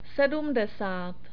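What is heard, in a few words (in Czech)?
"sedmdesát", Czech; numbers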